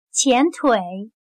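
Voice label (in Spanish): "前腿", chino mandarin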